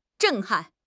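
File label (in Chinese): "震撼", Chinese; HSK6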